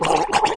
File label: Voices Character Male